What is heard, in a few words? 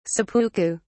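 "seppuku", British English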